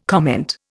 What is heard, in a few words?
"comment", Indian